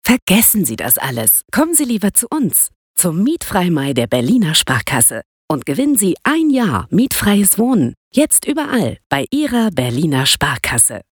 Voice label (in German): Werbung; Berliner Sparkasse